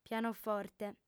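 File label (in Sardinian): "pianoforte", female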